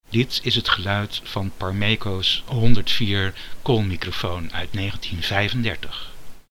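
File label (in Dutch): Parmeko 104 carbon microphone; koolmicrofoon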